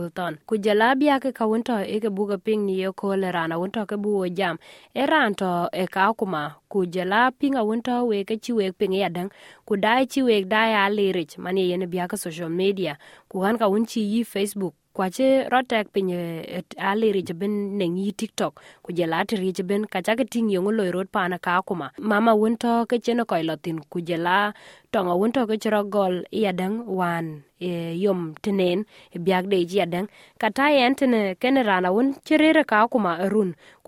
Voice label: dinka, podcast; SBS Dinka